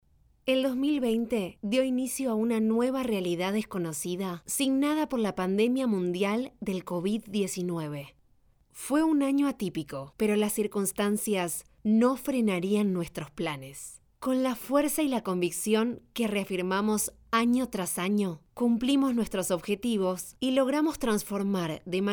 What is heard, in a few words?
spanish argentinian corporate videos